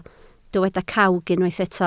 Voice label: "cawg", Welsh